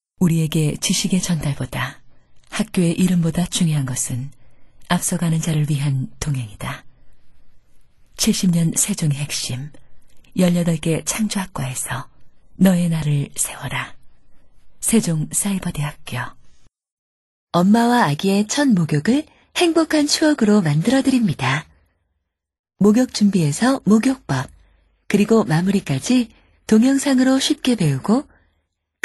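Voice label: Korean, female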